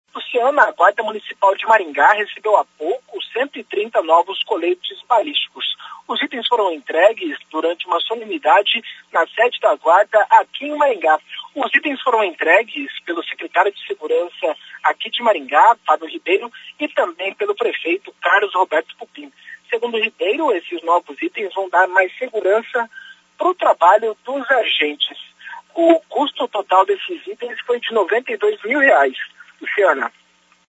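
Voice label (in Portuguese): boletim ao vivo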